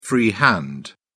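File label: "free hand", British English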